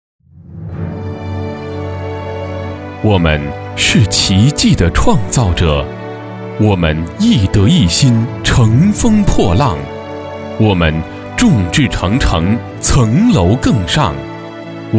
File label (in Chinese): 大气专题; 万达商管